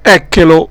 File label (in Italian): "ecchelo", dialetto; ecch elo eccolo; eme eccomi